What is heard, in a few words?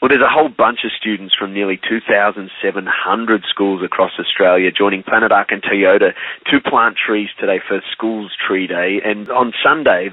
radio grab